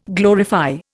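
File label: "gloriole", Indian; Dictionary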